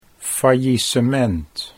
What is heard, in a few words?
"faillisement", Dutch